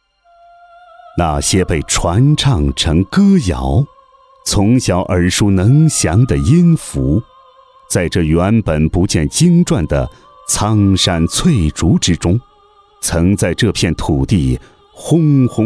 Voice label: 男 纪录片; 庆源革命

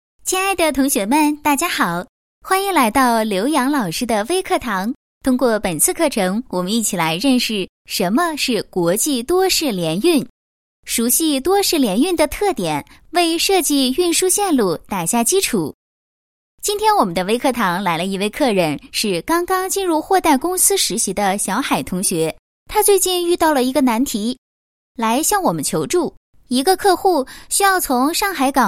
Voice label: 女 介绍 微课堂讲课 国际多式联运方案设计

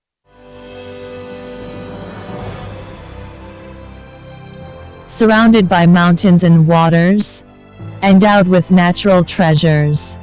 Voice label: 女; in-China

